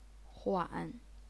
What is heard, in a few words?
"huan3", chinese pinyin